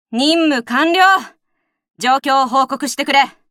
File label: Voice victory